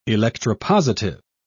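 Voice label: "electropositive", British English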